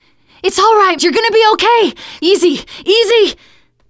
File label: revive friend loud, teen girl; player; survivor; voice